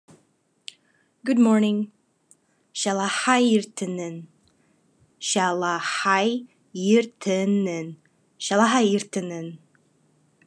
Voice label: Khakas Good morning